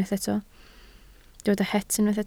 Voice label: "het", Welsh

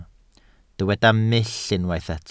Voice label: "mull", Welsh

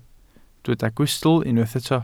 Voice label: "gwystl", Welsh

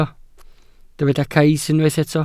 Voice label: "cais", welsh

